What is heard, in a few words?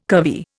"curvy", Indian